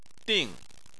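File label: "ding" fourth tone, Chinese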